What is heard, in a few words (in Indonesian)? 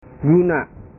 "guna", bahasa